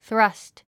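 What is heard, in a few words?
"thrust", English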